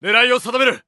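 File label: Japanese Neutral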